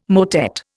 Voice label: "motet", Indian